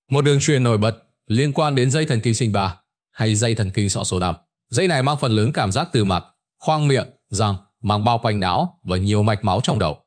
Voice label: narration; Vi Sao An Kem Lanh Khien Dau Ban Dau Buot; StickerMan